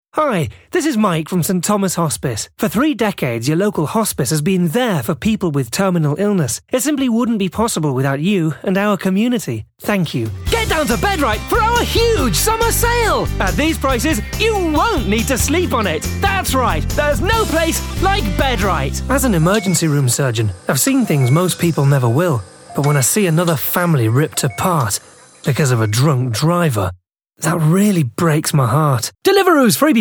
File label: Commercial voicereel